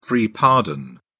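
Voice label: "free pardon", British English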